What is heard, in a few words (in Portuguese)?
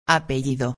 "apellido", espanhol; palavra do dia